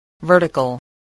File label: "vertical", English